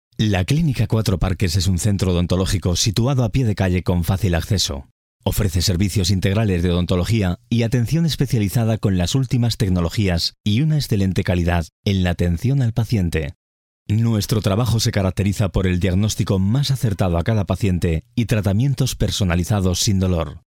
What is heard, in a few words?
locutor spanish voiceover